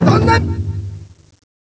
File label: announcement; janjan motokkun